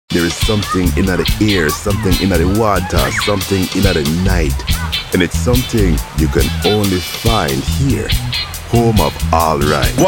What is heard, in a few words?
CARIBBEAN VOICE